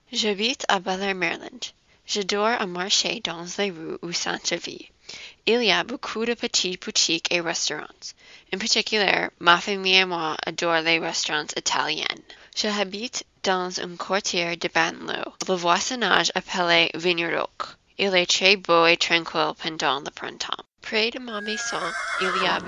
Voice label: French oral